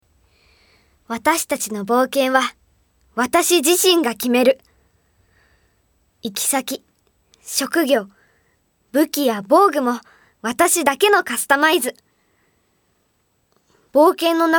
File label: narration